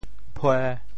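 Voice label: "phue1", Teochew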